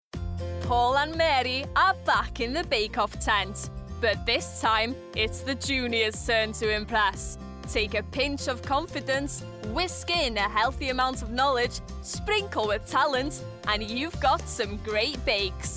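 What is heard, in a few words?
narration, Female. Welsh.; Teens-30s.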